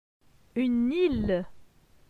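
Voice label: "Une ile", French; phonetique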